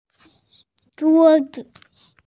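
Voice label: "zhuo1 zi5", Mandarin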